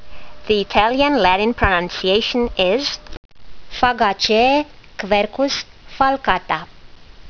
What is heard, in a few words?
latin; Quercus falcata